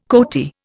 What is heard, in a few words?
"coati", Indian